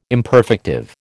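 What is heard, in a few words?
"imperfective", American English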